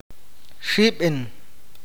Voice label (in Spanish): hermano de mujer, awakateko